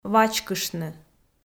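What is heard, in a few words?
"вачкыштны", Komi, female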